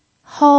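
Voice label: "hou" first tone, pinyin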